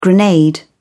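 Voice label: "grenade", British English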